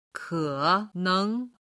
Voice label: "ke3neng2", Chinese